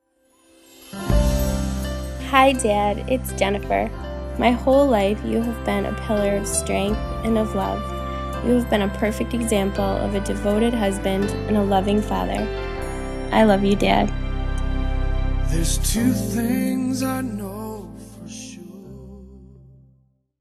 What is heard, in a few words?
butterfly kisses voiceover